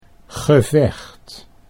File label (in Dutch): "gevecht", Dutch